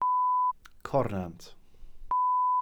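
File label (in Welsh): "cornant", Welsh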